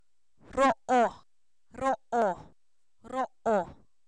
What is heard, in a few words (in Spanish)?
boca, Zapoteco, san miguel albarradas; cuerpo; INALI